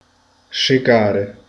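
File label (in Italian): "strofinare", Italian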